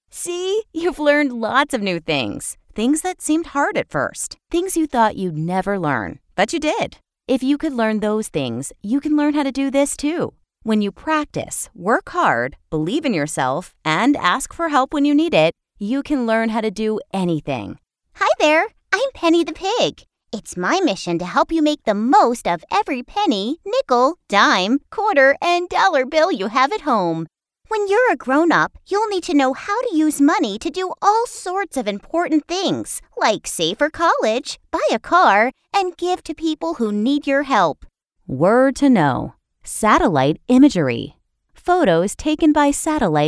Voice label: K-12 narration, Female K-12 Voiceover, Energetic, upbeat